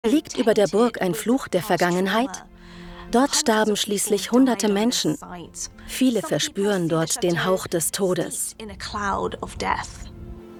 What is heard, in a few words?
VOICEOVER variantenreich emotional; Cursed H Istories 4 Barnum